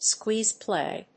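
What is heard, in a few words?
"squeeze play", English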